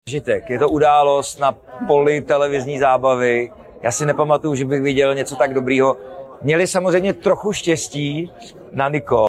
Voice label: vocals